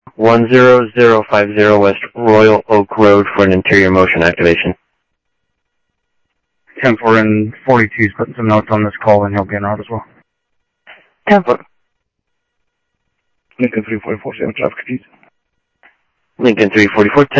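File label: Sheriff West County, scanner audio trunk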